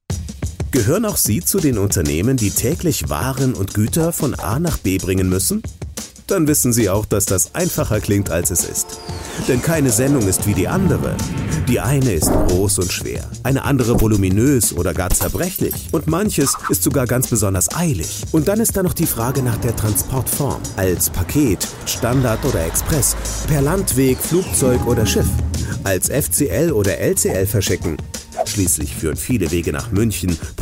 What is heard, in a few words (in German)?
DEUTSCH MALE Imagefilm Erklaerfilm; Fr8partner